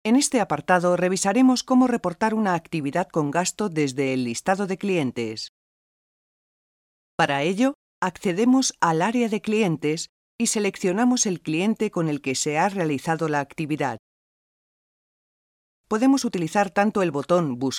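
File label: Spanish Spain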